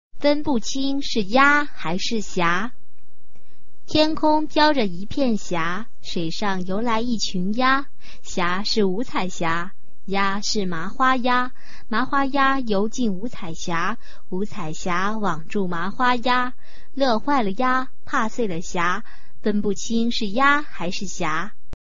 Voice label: chinese tongue twister